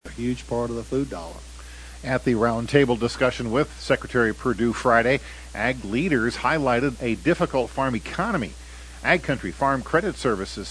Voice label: air check; Country FCS